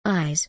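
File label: "eyes", English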